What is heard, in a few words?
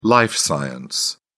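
"life science", British English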